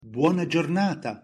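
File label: "buona giornata", Italian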